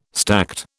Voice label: "stacte", British